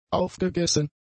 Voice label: "aufgegessen", German; adjectives

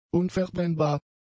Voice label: "unverbrennbar", German; adjectives

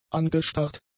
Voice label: "angestarrt", German; adjectives

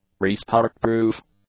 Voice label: "restart-proof", English; adjectives

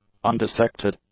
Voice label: "undissected", English; adjectives

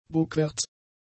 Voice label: "bugwärts", German; adjectives

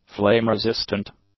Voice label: "flame-resistant", english; adjectives

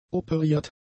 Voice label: "operiert", German; adjectives

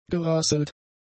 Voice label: "gerasselt", German; adjectives

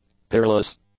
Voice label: "peerless", English; adjectives

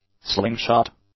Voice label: "slingshot", english; nouns

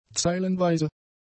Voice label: "zeilenweise", German; adjectives

